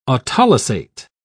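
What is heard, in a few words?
"autolysate", English, British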